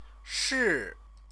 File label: "shi", Chinese